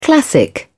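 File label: "classic", English, uk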